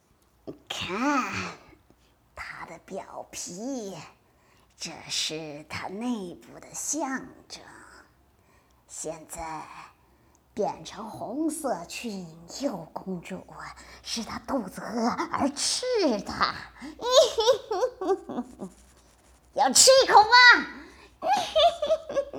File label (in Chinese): 巫婆配音; 白雪公主